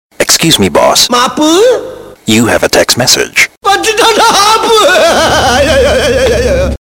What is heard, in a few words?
Tamil Message